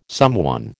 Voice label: "someone", American

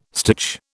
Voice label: "stitch", British

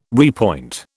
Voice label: "repoint", British

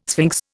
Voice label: "sphinx", Indian

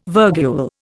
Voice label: "virgule", Indian

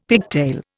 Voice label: "pigtail", Indian; Dictionary

